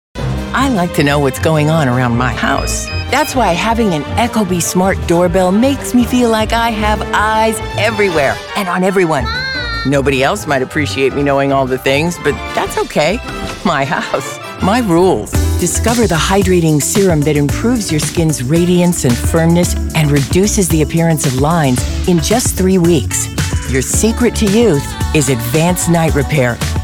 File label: Commercials, Professional Voiceover